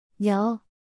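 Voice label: "녀", Korean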